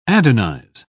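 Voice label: "adonize", British English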